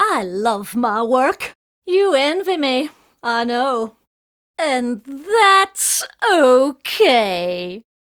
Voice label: Remind Taunt; Warframe; Corpus Lich; Persona D